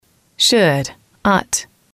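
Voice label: "should ought", English, female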